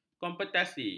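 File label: "kompetensi", Malay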